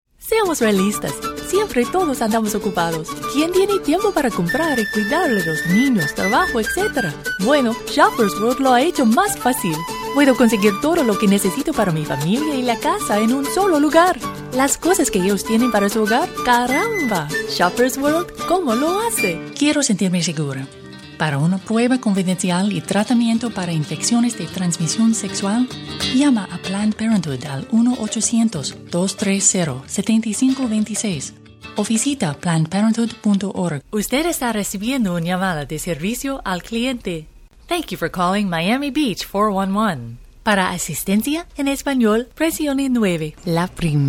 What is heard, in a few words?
SPANISH Commercial